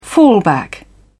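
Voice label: "fallback", British English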